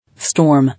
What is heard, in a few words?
"Storm", English